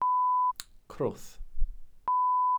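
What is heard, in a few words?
"crwth", Welsh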